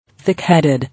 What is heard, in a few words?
"Thick headed", English